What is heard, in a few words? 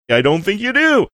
saying i dont think you do funny